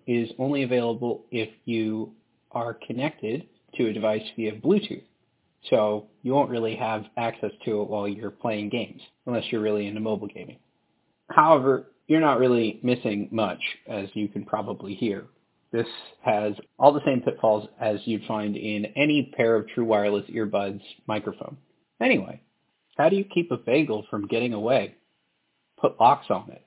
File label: EPOS GTW 270 Hybrid mic sample